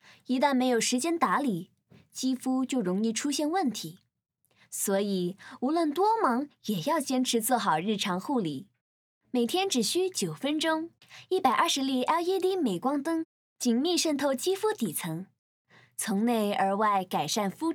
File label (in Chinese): 普通話女聲; LG